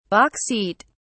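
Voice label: "box seat", English, British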